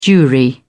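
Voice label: "jewry", British English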